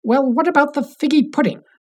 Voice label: muffled voice